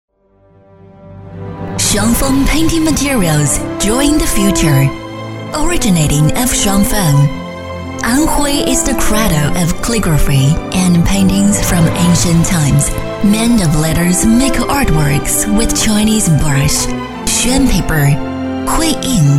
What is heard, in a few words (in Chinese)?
女, 英文, 宣传片, 大气磅礴专题; 大气浑厚